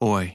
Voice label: phoneme turquoise, American; American IPA phonic

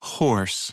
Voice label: slow horse, American; American IPA phonic

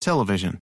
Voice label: television, American

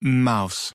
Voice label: slow mouse, American; American IPA phonic

